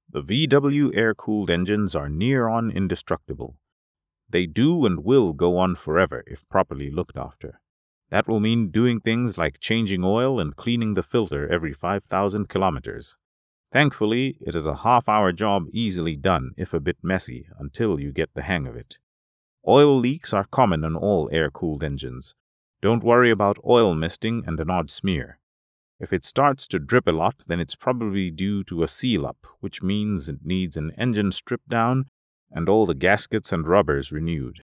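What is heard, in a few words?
African English, male; chewa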